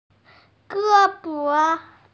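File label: "ge1bo5" Mandarin Chinese, female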